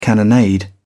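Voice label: "cannonade", British English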